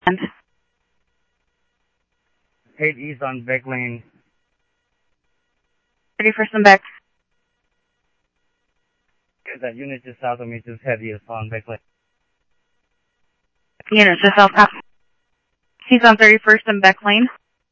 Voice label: Phoenix PD, scanner audio